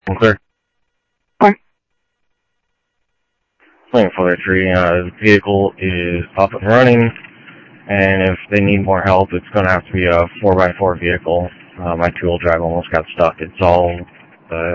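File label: Sheriff East County, scanner audio trunk; MCSO - EAST Sheriff-EastCnty; ThompsonPeakScot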